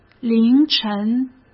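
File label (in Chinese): "凌晨", Mandarin Chinese; chinese flashcards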